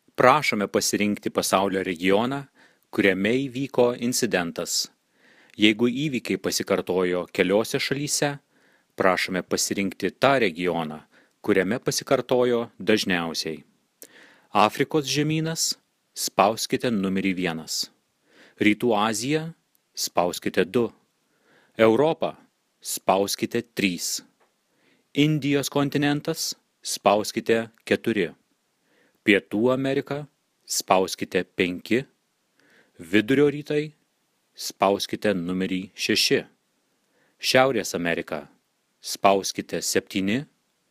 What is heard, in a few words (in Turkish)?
Litvanca Erkek, seslendirme